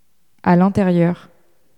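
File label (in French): "a l interieur", French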